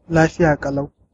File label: fine, hausa